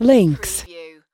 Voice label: US female, "links"; lynne publishing